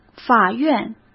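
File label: "法院", Mandarin Chinese; hsk; chinese-flashcards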